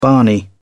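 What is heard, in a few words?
"barney", British English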